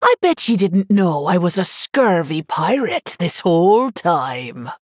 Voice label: Female Human PIRATE DAY

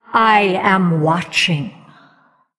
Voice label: Female Undead Greetings

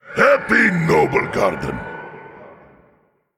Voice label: male elemental, Emote "happy Noblegarden"

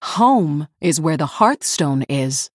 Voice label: Female Human Mirror Greetings

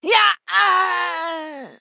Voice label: Female Draenei Death

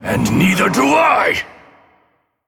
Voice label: Male Forsaken Attack

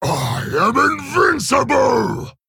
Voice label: Male Orc; Garrosh; Rokara

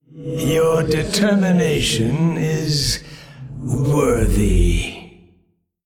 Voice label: Male Old God Well Played

